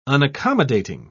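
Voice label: "unaccommodating", British English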